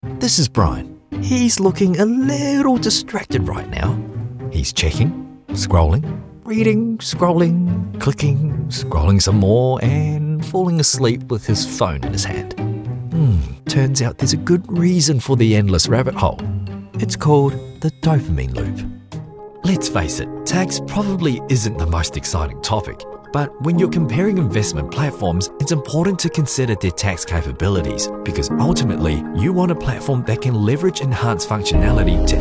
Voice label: Explainer

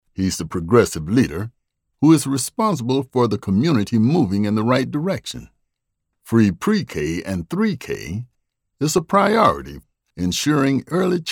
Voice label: POLITICAL AD

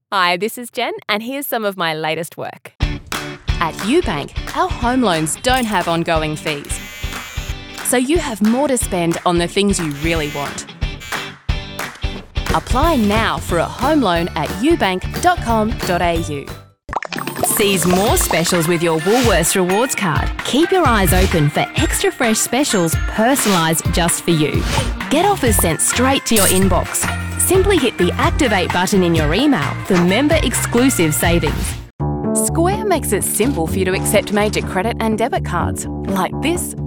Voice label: Australian Commercial

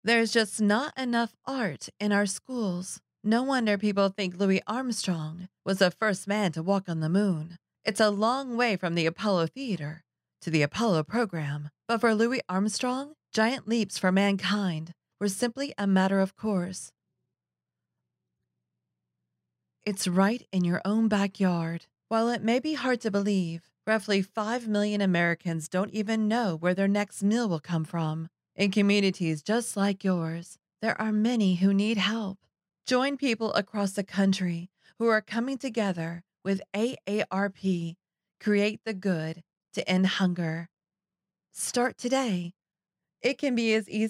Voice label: PSA Samples

